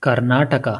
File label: Karnataka pronounciation; india